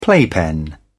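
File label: "playpen", British English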